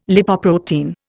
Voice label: "Lipoprotein", Indian